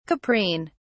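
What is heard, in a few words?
"caprine", English, British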